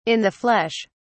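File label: "in the flesh", British English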